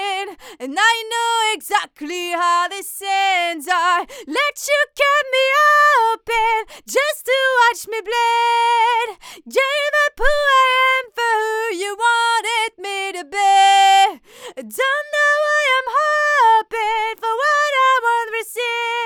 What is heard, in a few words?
TLM103 loud female voice; neumann; test neumann tlm 103 vs mxl 990